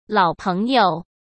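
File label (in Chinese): "老朋友", Mandarin Chinese; hsk; chinese-flashcards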